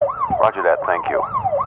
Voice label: emergency police radio male officer in car sirens roger that thank you